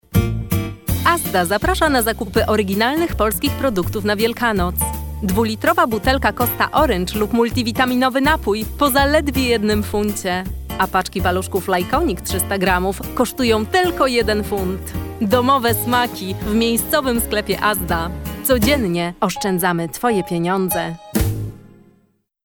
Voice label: female polish commercial; asda

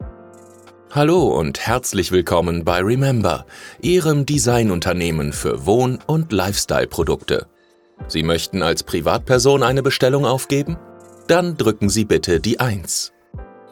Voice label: male german ivr compilation; devine voices

